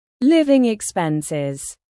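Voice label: "living expenses", British; Life, leisure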